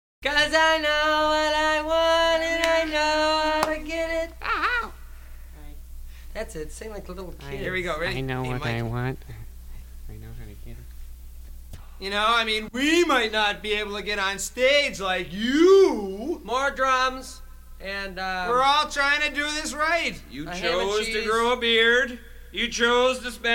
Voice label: Studio Chatter